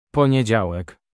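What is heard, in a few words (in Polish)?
"poniedziałek", polish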